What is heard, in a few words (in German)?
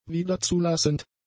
"wiederzulassend", German; adjectives